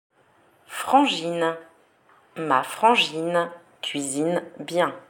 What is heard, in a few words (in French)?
"frangine", French slang; slang words